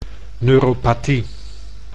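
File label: "neuropathie", Dutch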